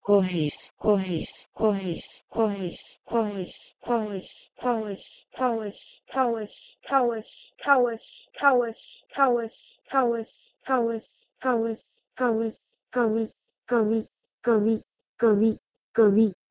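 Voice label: Proto-Indo-European kouh1is to Marathi kavi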